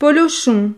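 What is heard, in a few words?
"polochon", French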